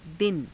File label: "dina", Hindi